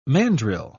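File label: "mandril", British English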